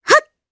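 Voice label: Vox Casting, Japanese; Kirze; kingsraid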